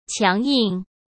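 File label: "强硬", Mandarin Chinese; chinese-flashcards; hsk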